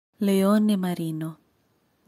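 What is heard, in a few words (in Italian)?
"leone marino", Italian; marine-mammals; Sea-lion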